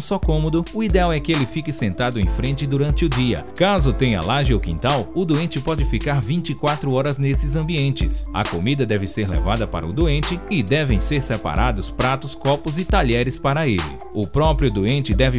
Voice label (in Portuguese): SPOT ISOLAMENTO CASAS APERTADAS